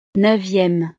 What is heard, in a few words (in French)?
"neuvieme", frances